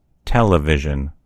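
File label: "television", American English; gcompris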